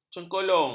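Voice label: "cengkolong", Malay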